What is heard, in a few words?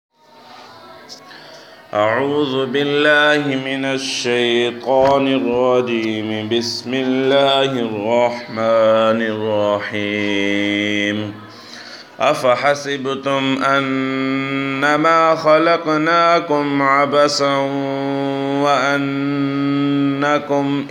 voice, recitation